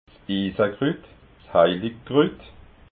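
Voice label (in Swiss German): "isakrut", Alsatian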